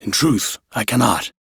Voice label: Crew Reject, Male, en; Warframe; Railjack Crew; Arbiters Hexis

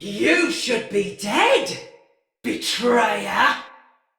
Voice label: See Player Dist, Male; Battle; Barks; Dialog; Sinecure Banneret

